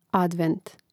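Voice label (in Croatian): "advent", Croatian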